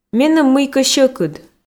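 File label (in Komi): меным мыйкӧ сьӧкыд, Komi, female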